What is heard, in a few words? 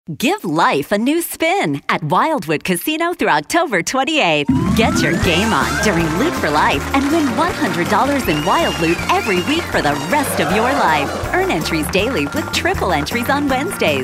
Upbeat Perky